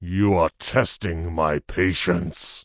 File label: Tauren Male Elder Pissed; NPC